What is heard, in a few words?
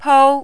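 "pou", Mandarin, first tone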